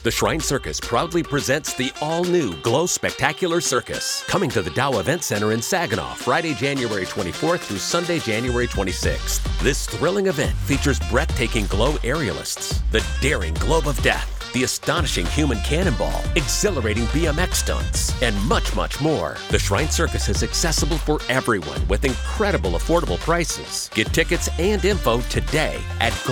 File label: RADIO; Shrine Circus; SAGINAW